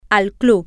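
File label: to at the club, spanish, female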